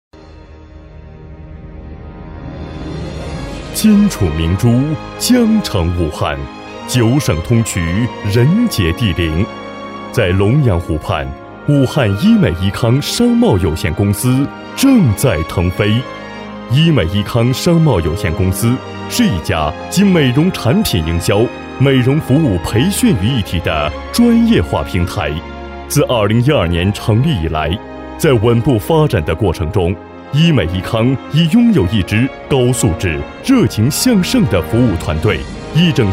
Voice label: 男95-专题-企业专题大气抒情

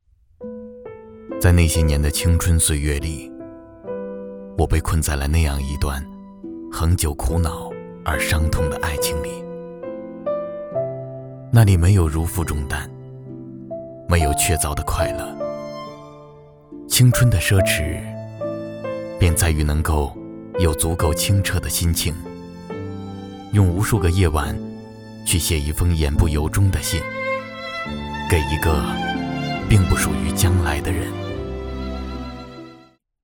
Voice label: 男 纪录片

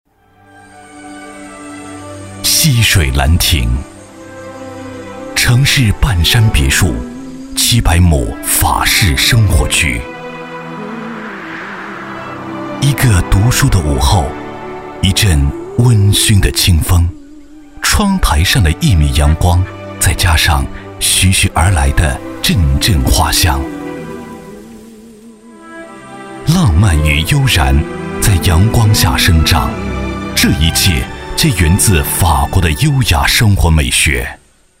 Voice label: 男 专题; 房产; 溪水兰庭

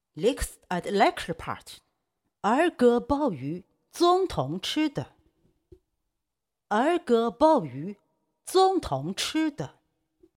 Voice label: 女25-模仿, 女; 德国总理默克尔

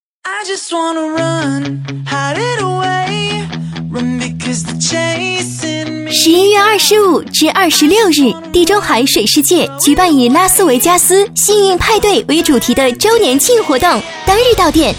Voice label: 女7-广告-水世界(青春干净); 地中海

